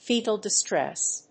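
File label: "fetal distress", English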